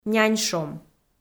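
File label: "няньшом", Komi, female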